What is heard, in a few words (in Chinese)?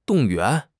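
"动员", Chinese; HSK6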